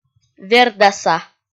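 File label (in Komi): "вердаса", Komi, female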